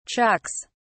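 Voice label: "chucks", British English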